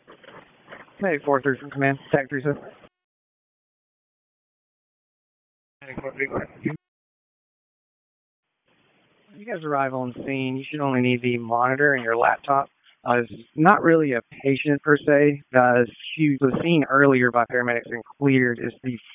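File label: Public Safety Tactical, p25; wake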